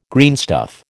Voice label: "greenstuff", American

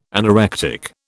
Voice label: "anorectic", British

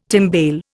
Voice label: "timbale", Indian

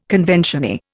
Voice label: "conventioneer", Indian

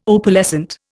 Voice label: "Opalescent", Indian

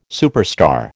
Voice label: "superstar", American

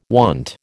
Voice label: "wont", American

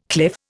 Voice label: "cliff", Indian

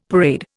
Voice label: "parade", Indian; Dictionary

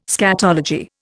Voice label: "scatology", Indian

